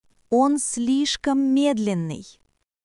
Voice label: Russian, russian phrases insults; ロシア語のネガティブなフレーズ

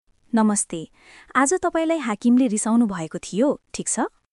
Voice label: Nepali, nepali greeting phrases; 日本の「お疲れ様です！」はネパール語でどう言う？職場で使える挨拶フレーズ集

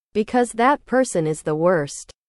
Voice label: english

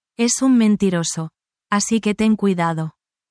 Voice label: spanish, spanish phrases negative language

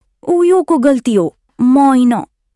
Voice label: negative nepali phrases, insults; katakana; complaints

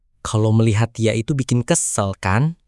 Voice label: negative phrases indonesian, insults

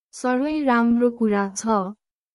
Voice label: Nepali, thank you in nepali

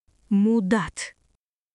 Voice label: Russian, russian phrases insults; ロシア語のネガティブなフレーズ

